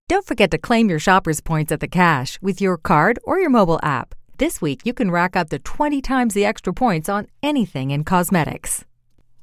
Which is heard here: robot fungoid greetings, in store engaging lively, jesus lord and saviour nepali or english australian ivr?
in store engaging lively